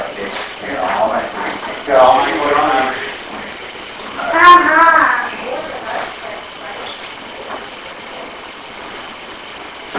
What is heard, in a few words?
Female Voice (Hi Hi) AMPLIFIED; 2nd Bed Room